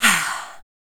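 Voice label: vocal female, Dance Vocals, spoken words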